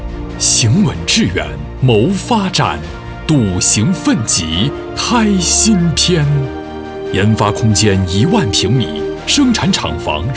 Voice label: 大气

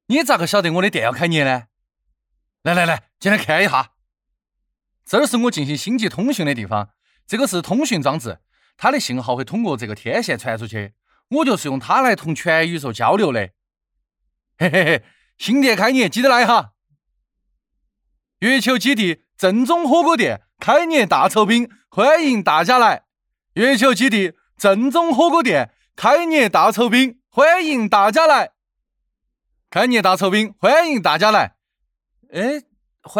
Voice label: 四川话30岁青年模仿

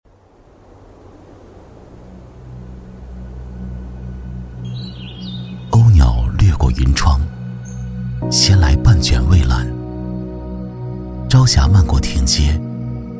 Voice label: 温暖走心

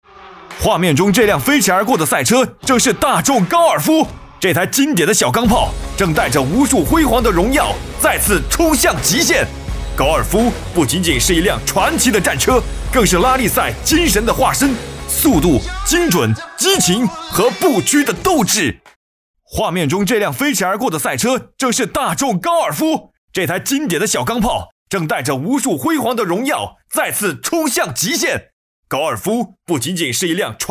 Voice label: 激情

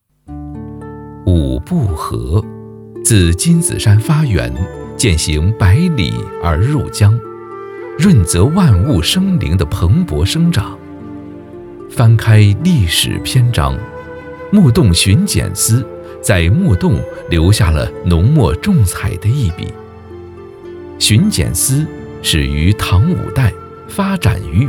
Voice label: 娓娓道来

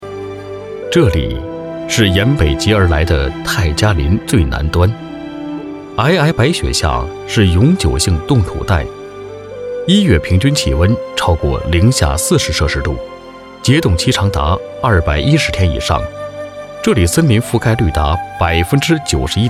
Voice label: 沉稳平和

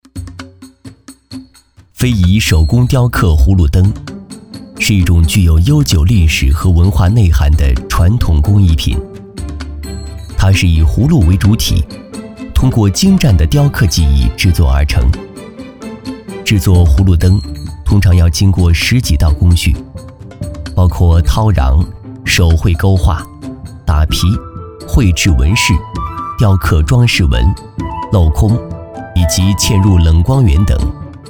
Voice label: 沉稳

